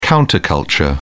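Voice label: "counter culture", British English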